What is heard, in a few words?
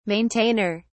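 "maintainer", British English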